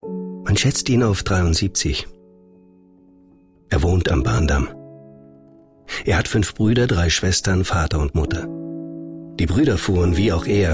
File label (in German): erzaehler wald im zimmer; LOFT VOICES